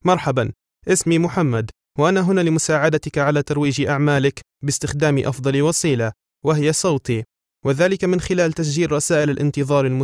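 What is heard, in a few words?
Arabic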